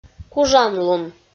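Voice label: "кужанлун", Komi, female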